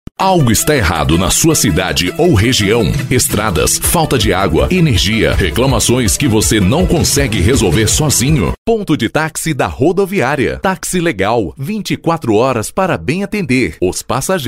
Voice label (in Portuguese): locutor producao de offs vinhetas spots comerciais